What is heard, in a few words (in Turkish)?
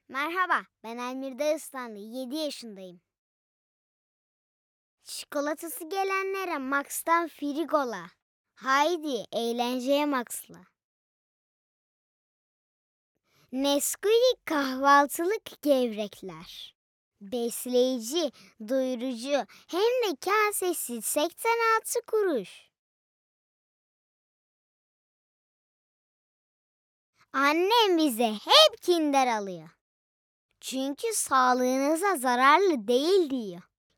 reklam